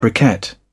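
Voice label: "briquette", British English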